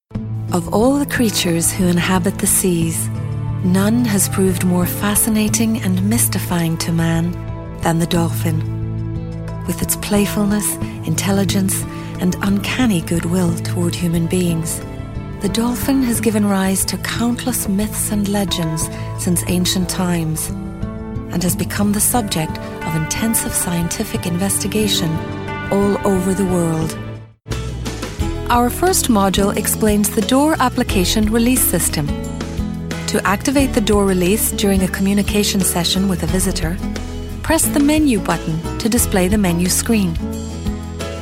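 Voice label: Northern Irish, female, narration; accent of ireland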